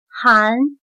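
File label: "寒" Chinese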